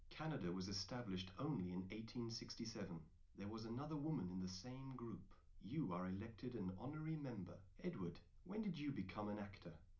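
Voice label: male, live recording, 250 ms, 1 m; SiSEC11; underdetermined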